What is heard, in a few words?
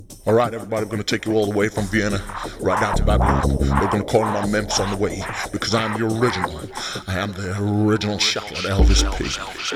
voiceover